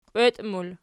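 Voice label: "kweti" plural, Archi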